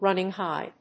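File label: "running high", English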